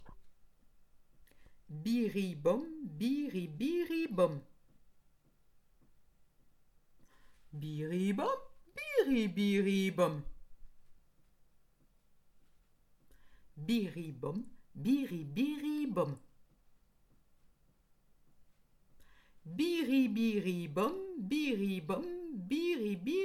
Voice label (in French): apprentissage progressif en imitation parlé rythme